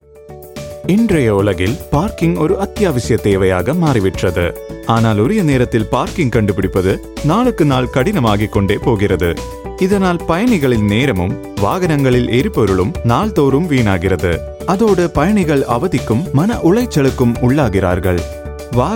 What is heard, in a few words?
Tamil; parklens